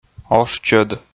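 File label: "ошчӧд", Komi-Zyrian, male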